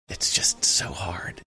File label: whispering its just so hard